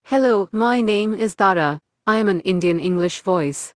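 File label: Indian English; iOS 26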